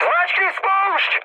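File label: attack position, Czech, mood high; War Thunder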